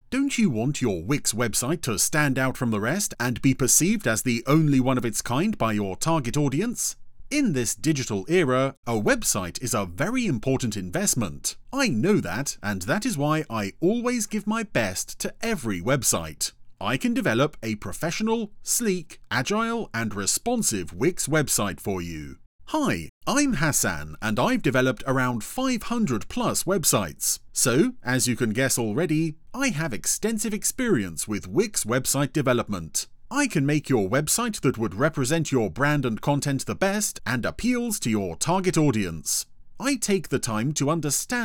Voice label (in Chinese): 外籍英男 广告宣传